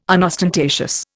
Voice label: "unostentatious", Indian